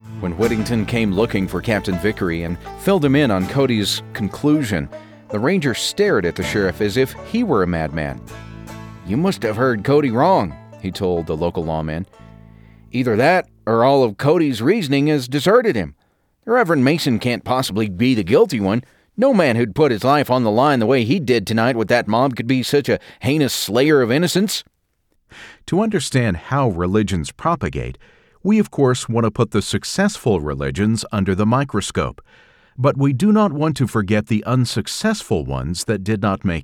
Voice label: Audio Books